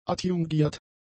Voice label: "adjungiert", german; adjectives

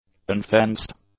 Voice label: "unfenced", english; adjectives

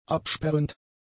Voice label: "absperrend", German; adjectives

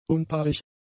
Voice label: "unpaarig", German; adjectives

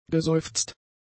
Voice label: "geseufzt", German; adjectives

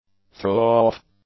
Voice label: "throw off", english; nouns

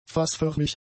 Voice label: "fassförmig", German; adjectives

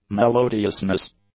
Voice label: "melodiousness", English; nouns

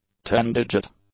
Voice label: "ten-digit", English; adjectives

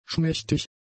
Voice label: "schmächtig", German; adjectives

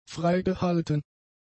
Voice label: "freigehalten", German; adjectives

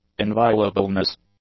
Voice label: "inviolableness", English

